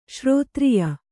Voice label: "ಶ್ರೋತ್ರಿಯ", kannada

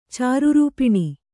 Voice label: "ಚಾರುರೂಪಿಣಿ", kannada

